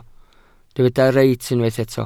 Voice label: "reit", Welsh

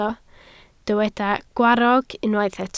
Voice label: "gwarrog", Welsh

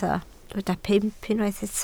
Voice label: "pump", Welsh

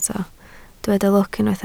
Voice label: "lwc", Welsh

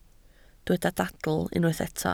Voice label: "dadl", Welsh

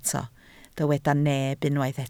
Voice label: "neb", Welsh

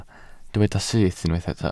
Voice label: "syth", Welsh

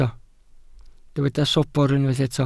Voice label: "sobr", Welsh

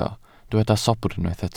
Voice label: "sobr", Welsh

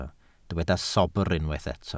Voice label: "sobr", Welsh

